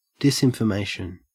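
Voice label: "disinformation", Australian English; pronunciations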